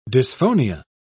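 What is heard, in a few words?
"dysphonia", British English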